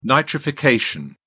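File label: "nitrification", British English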